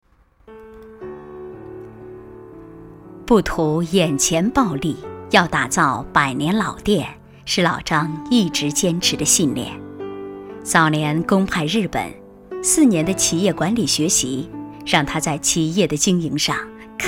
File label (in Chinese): 专题 人物 人物介绍, 女国